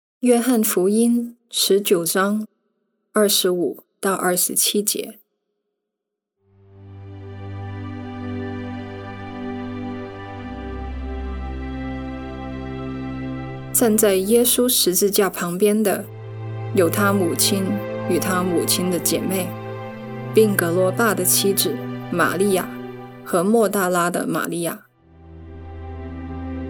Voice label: Voice Over Chinese; Journey to the Cross